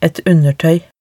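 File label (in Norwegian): "et undertøy", Norwegian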